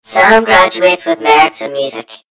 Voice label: story voiceover; yumpod